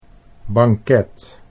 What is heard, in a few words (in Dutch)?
"banket", Dutch